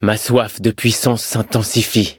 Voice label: levelup, fr; cookierunwiki